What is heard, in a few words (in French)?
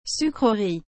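"sucrerie", French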